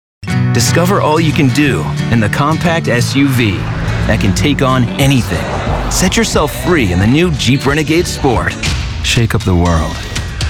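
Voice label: Commercials, voice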